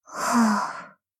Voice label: sad